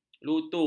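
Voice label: "lutu", Malay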